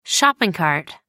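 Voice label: "shopping cart", British English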